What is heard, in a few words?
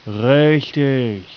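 "richtig", German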